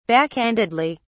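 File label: "backhandedly", British English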